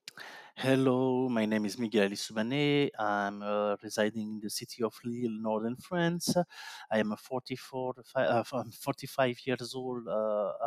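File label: English, voice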